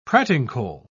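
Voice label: "pratincole", English, British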